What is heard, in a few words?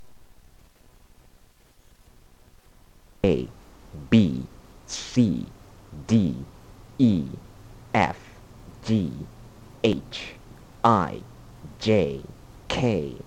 english alphabet american man